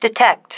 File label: "detect", female